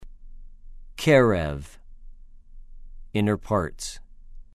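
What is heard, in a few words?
"קֶ֫רֶב", Hebrew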